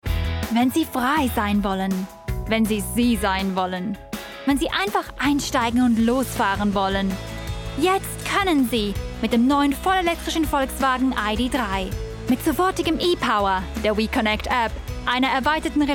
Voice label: de-DE f higher